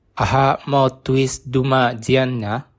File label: dance, bonggi